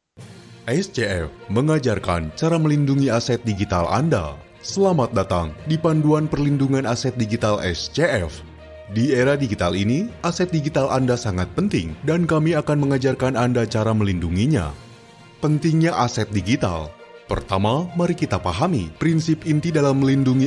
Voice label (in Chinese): 印尼语男声